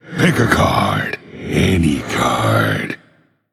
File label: Male Undead Play